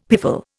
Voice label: "piffle", Indian; Dictionary